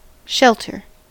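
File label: "shelter", American English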